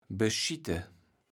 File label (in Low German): "beschitte", kaevels platt